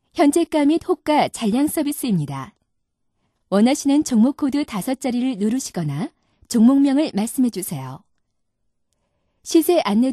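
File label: Korean, female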